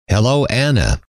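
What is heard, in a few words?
hello, male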